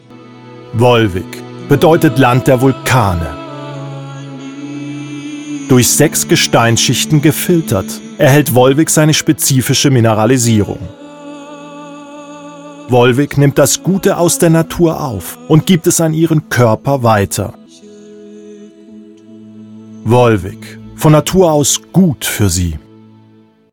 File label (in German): Werbung mit Musik